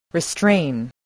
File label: "restrain", English